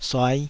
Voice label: "suai5", taiwanese Syllables